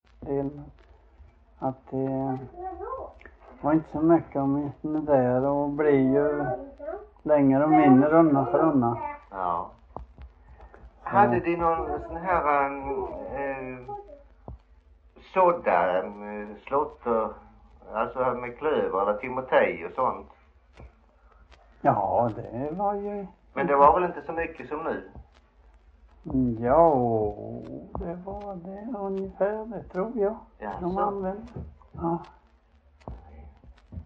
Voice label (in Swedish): Frillesås HALL, dialekter; Folkminnen; Svenska sagor